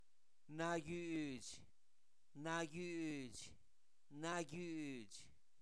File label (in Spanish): Zapoteco, santo domingo albarradas; suave